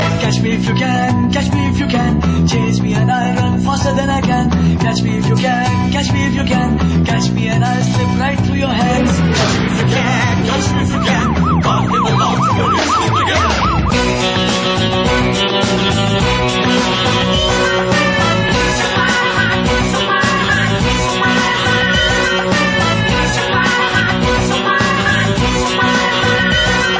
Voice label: English